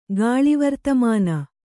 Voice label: "ಗಾಳಿವರ್ತಮಾನ", Kannada

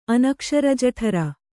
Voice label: "ಅನಕ್ಷರಜಠರ", kannada

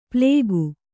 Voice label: "ಪ್ಲೇಗು", kannada

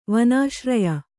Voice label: "ವನಾಶ್ರಯ", Kannada

